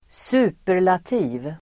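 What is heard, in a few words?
"superlativ", Swedish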